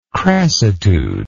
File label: "crassitude", English